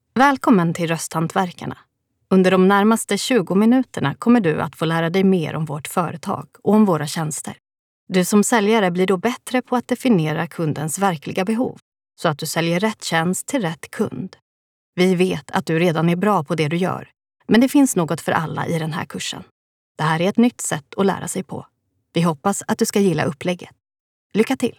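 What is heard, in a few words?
female, e-learning, Swedish; svenska rostartister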